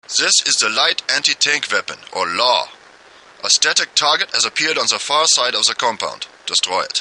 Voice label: unused LAW training transmission